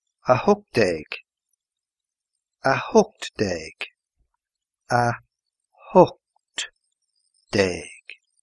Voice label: eighteen irish

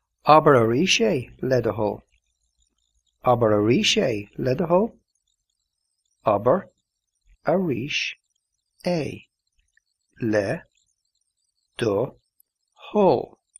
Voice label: please repeat irish

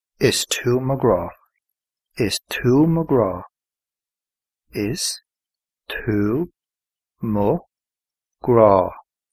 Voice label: you are my love irish

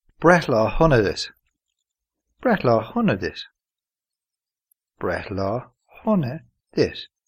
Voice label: happy birthday irish

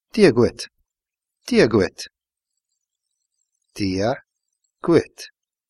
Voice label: hello irish

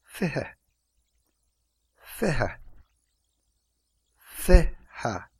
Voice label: twenty irish

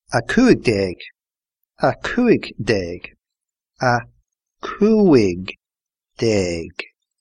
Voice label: fifteen irish

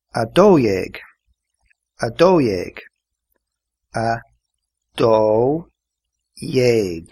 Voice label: twelve irish

